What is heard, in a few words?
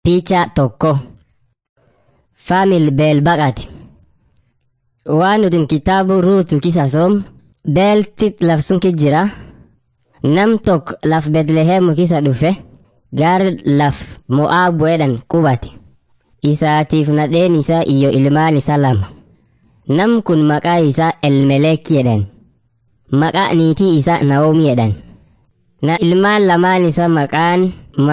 afan munyoyaya; servants of god